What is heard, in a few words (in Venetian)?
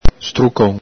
"strucon", Veneto; ENG: yearning; ITA: struggimento, afflizione, accoramento; el Galepin